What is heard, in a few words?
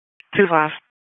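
EMS Dispatch, p25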